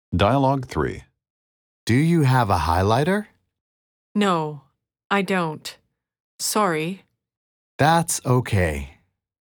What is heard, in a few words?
Dialogue slow